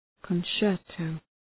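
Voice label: "concerto", English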